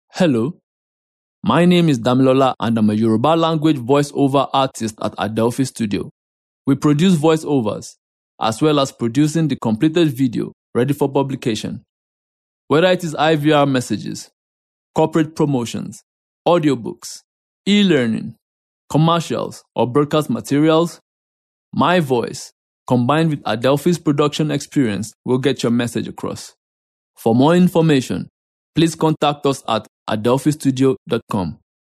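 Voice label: Yoruba, male, English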